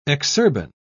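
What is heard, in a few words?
"exurban", British English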